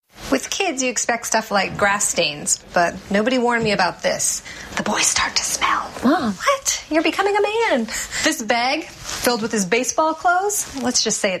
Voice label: weird tide commercial